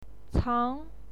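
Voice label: "cang", Mandarin Chinese, second tone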